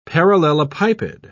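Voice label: "parallelepiped", British English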